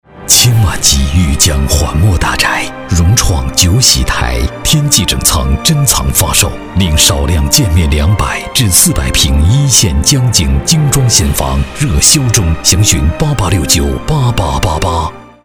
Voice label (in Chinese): 男 广告 高大上地产